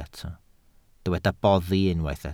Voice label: "boddi", Welsh